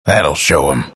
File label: announcer ally; Dota 2; bastion announcer